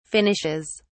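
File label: "finishes", British English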